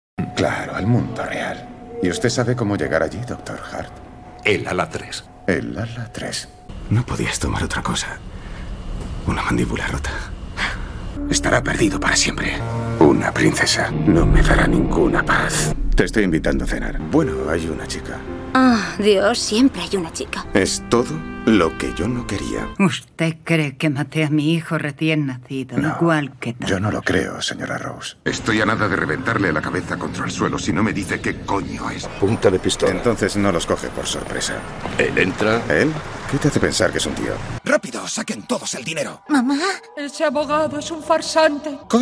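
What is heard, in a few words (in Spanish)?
doblaje España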